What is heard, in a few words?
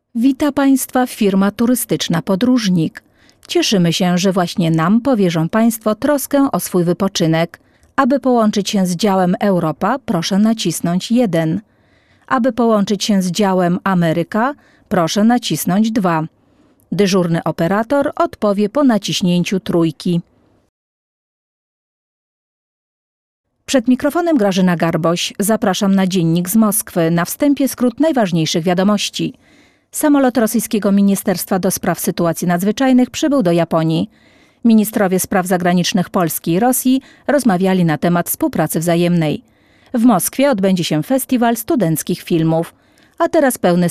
polish female